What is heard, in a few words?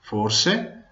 maybe, venetian